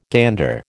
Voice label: "gander", American